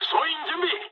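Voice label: near waypoint mood low, speech japanese; War Thunder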